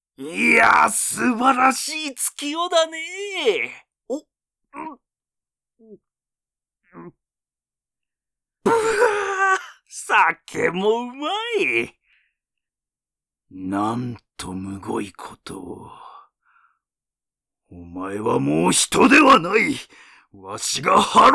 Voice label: 老け

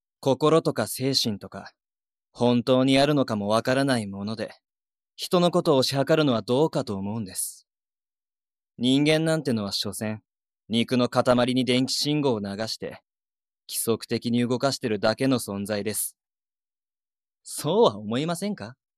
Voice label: マッド男子

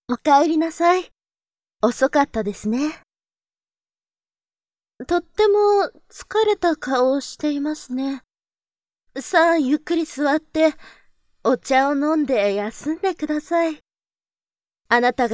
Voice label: おっとりお姉さん

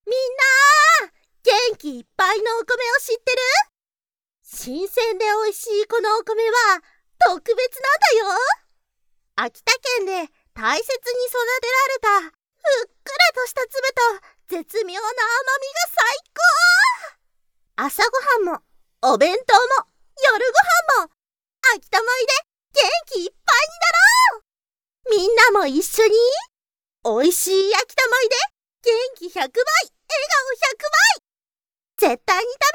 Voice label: ナレーション元気いっぱい

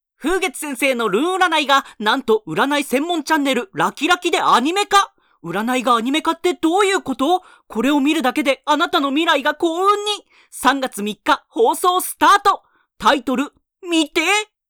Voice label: ナレーション

